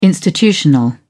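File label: "institutional", British English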